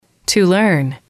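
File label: "to learn", English, female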